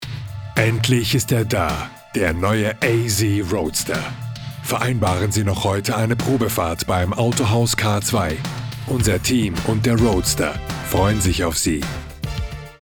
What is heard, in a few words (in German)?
werbung; az roadster